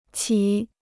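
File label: "起", Chinese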